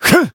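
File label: Voice attack Japanese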